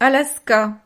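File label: "alaska", French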